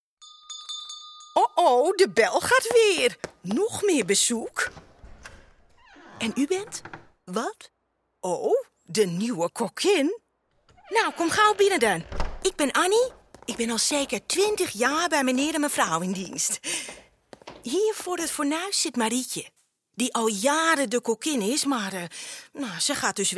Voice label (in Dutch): POPPENHUIS Amsterdamse dienstmeid; Huis Van Gijn